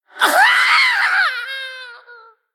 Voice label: Male Gnome Death